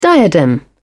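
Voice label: "diadem", British English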